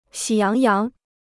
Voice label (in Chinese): "喜洋洋", Chinese